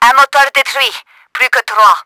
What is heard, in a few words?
engine down, french; Battle Engine Aquila